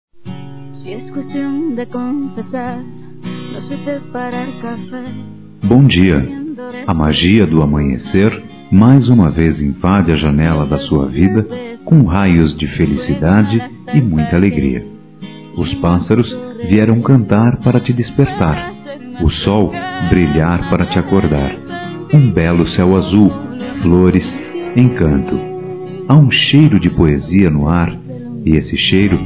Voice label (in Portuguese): Bom Dia Masculino; Telemensagens; Dia Dos namorados; Telemensagens 2017